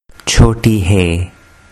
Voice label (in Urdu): "chotee he", Urdu